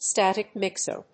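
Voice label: "static mixer", English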